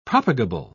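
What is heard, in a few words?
"propagable", British English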